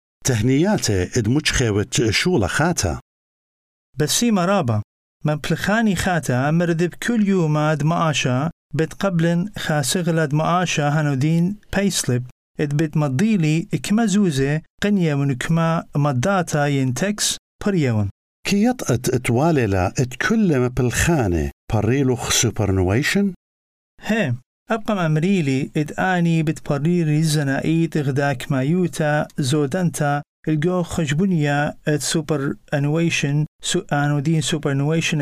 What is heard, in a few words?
working in australia assyrian